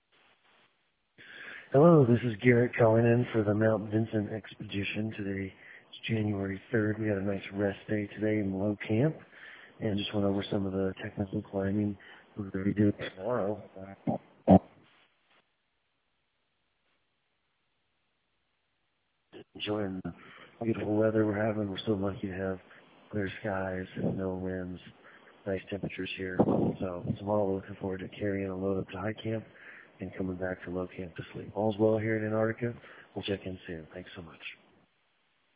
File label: voicemail